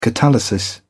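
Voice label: "catalysis", British English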